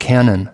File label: "cannon", us